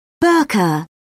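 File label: "burka", British English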